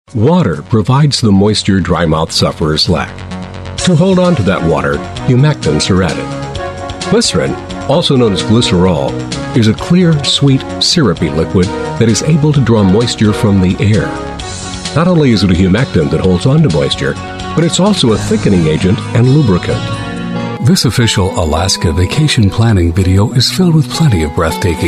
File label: Narration